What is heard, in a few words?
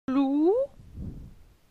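"Glou", French; phonetique; la syllabe ou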